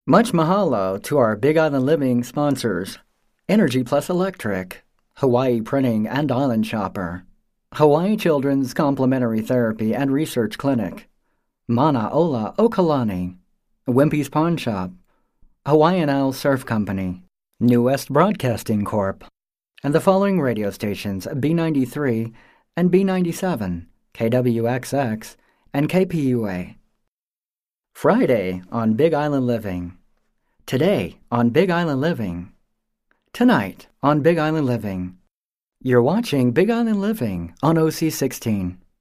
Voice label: television voiceover